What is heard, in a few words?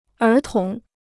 "儿童", Chinese